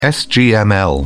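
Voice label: "sgml", British English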